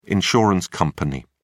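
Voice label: "insurance company", British English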